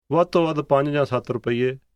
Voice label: at the most phrase, punjabi; English: at the most

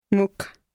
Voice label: main, punjabi

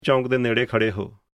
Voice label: intersection phrase, punjabi; English: intersection/ road junction

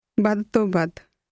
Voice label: at the most, punjabi; English: at the most

